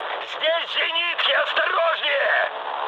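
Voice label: Russian damaged aa mood high, speech; War Thunder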